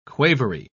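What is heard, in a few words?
"quavery", British English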